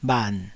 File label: "bhan3", taiwanese